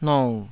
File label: "nou3", Taiwanese